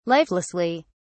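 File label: "lifelessly", British English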